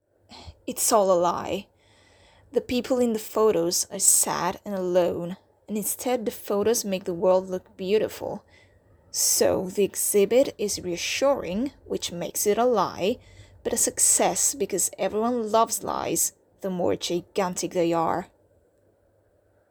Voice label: american